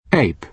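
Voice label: "ape", British English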